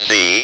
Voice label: speak and spell "Z"; ALPHABET